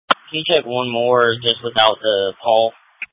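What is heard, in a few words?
Police Patrol (Dispatch)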